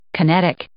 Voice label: "kinetic", English